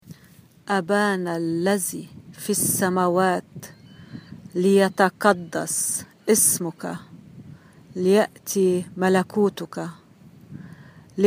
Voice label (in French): notre pere arabe